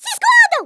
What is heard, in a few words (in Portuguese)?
"what the", Portuguese; Worms Armageddon